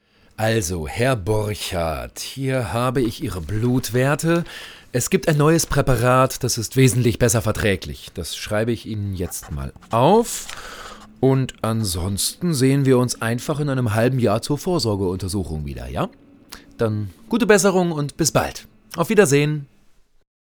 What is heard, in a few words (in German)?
Rolle Arzt